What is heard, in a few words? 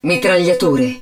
hud blaster, Italian; XBOX; BattleEngineAquila